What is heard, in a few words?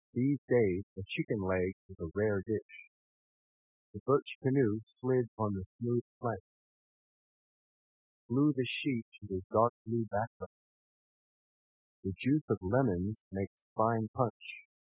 male white noise